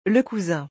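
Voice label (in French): "le cousin", French; vocabulaire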